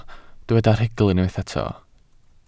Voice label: "rhugl", Welsh

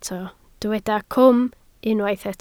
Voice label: "cwm", Welsh

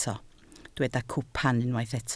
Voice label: "cwpan", Welsh

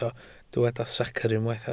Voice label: "secr" Welsh

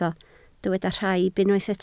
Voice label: "rhaib", Welsh

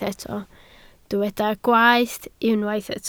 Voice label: "gwaeth", Welsh

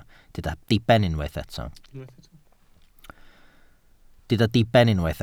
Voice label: "diben", Welsh

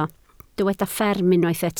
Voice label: "fferm", Welsh

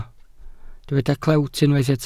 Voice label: "clewt" Welsh

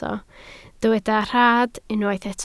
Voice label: "rhad", Welsh

